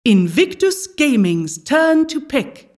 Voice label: announcer team pick